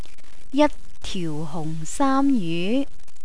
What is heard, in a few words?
"jattiuhungsaamjyu", Cantonese